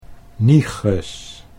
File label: "nieges", Dutch